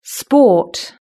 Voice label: "sport", british english